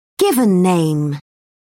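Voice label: "given name", English, British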